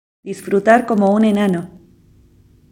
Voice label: pronunciation es disfrutar como un enano